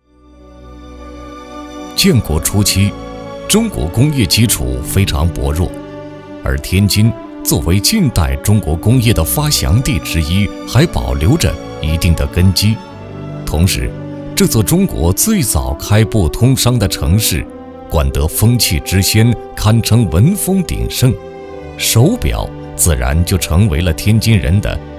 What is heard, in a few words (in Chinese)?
国语男声配音 历史回忆 深沉; 企业; 海鸥手表厂; 央视老师